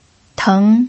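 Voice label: "teng", Mandarin Chinese pinyin, second tone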